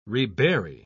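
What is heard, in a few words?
"rebury", British English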